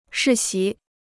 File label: "世袭", Chinese